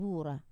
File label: "vura" all, Wamesa